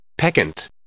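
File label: "peccant", English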